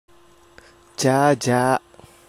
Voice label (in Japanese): "jaja", hougen; じゃぁじゃぁ; 相手に相づちを打つ時などに用いられる。; 方言